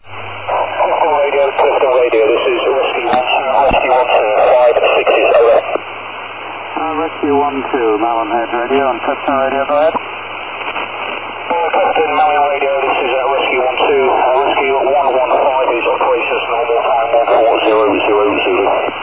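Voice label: 5680 Rescue 12, SAR 5680kHz, Marine Audio HF; RESCUE12 with Malin Head R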